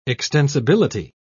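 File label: "extensibility", British English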